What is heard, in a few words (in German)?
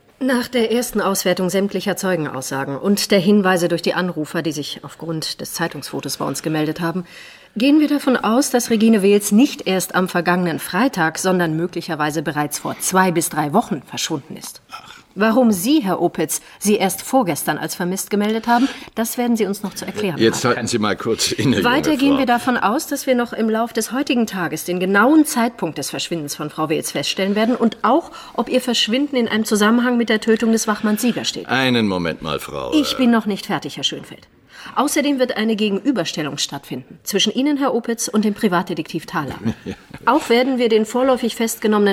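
acting, Neutral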